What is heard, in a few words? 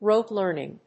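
"rote learning", English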